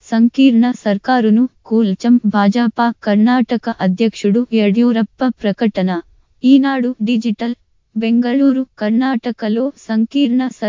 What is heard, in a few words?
telugu, audiobook